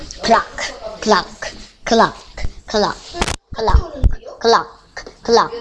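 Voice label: "clock", spoken word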